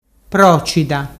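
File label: "procida", Ligurian dialect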